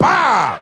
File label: "bow", Voice